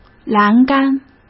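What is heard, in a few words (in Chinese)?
"栏杆", Mandarin Chinese; chinese flashcards; hsk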